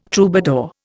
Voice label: "troubadour", Indian

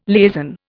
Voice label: "liaison", Indian

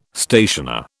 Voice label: "stationer", British

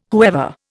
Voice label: "whoever", Indian

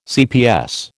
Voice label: "cps", American

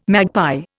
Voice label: "magpie", Indian

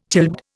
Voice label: "childbed", Indian

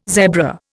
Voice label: "zebra", Indian

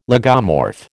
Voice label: "lagomorph", American

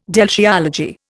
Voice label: "deltiology", Indian

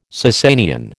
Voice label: "Sassanian", American

